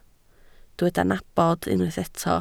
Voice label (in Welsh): "nabod", Welsh